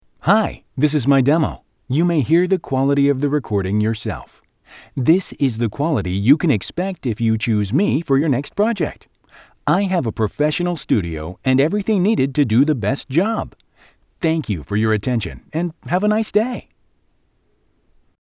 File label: english male